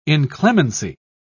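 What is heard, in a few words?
"inclemency", British English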